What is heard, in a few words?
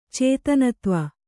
"ಚೇತನತ್ವ", Kannada; cētanatva; noun; = ಚೇತನ2.